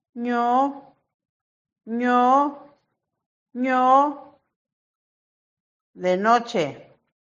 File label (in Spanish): No noche mixteco; vocabulario palabras en mixteco